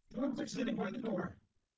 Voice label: calm surprised generated, speech; GAN for speech spectrogram